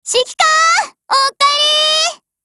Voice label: HELLO JP

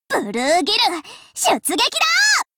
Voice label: warcry

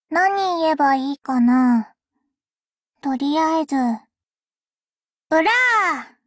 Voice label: warcry

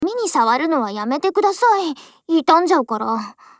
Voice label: DIALOGUE JP

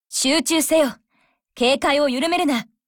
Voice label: warcry

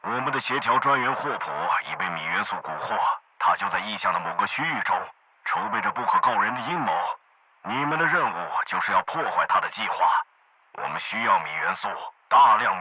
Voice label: Normal Start zh; Martin Novak

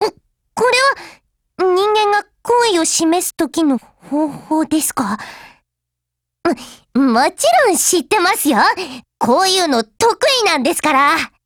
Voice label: DIALOGUE JP; USAS12

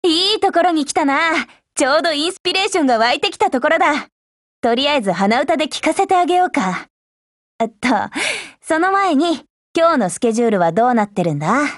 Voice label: HELLO JP

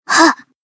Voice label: Battle Shout

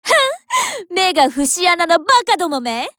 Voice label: warcry

